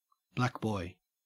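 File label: "blackboy", Australian English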